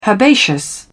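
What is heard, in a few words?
"herbaceous", British English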